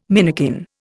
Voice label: "minikin", Indian